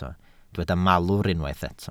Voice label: "malwr", Welsh

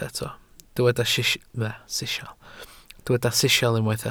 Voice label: "sisial", welsh

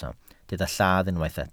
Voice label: "lladd", welsh

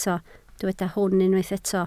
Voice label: "hwn", Welsh

